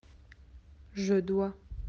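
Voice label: "devoir", French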